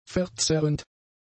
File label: "verzerrend", German; adjectives